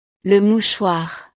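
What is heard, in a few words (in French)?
"le mouchoir", French; ropa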